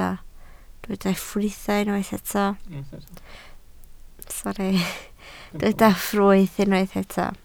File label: "ffrwyth", Welsh